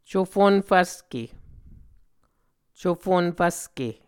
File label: "cufon fvske", Muscogee; Mvskoke Words with Voicing Consonants; cufun-fvske; A Dictionary of Creek/Muskogee; SIL International; Onvkv Ohhvyayices